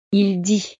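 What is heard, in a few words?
"il dit", French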